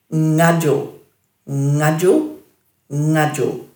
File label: "ngadju", Dhurga